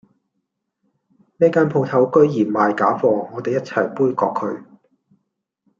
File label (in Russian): Голоса, Гонконгский